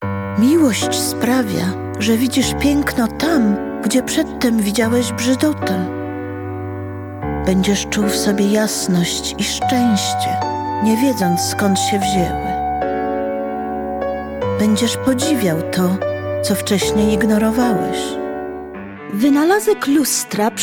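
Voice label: POL, Polish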